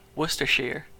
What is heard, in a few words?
"Worcestershire", us